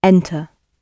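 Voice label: "enter", British English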